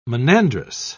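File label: "monandrous", British English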